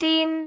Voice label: "dim1", cantone; microsoft Hiu Maan

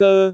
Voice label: "goe1", cantone; google D

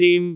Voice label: "dim1", cantone; google B

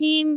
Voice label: "him1", cantone

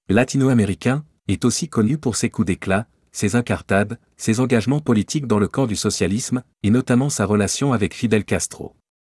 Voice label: french

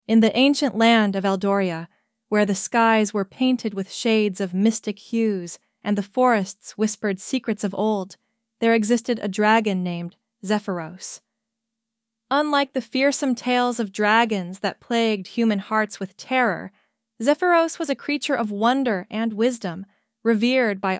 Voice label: English

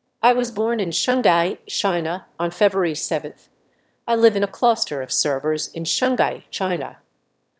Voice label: emotion; SpeechGPT

